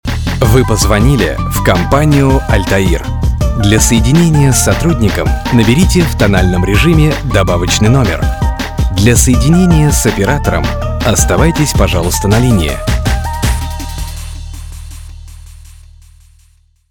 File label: IVR